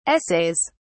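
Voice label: "essays", British English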